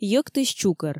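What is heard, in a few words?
"йӧктысь чукӧр", Komi, female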